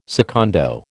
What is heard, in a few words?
"secondo", American